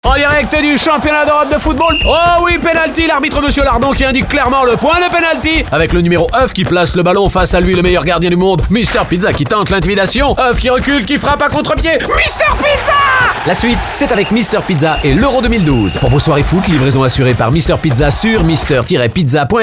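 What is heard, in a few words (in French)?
spot, spots radio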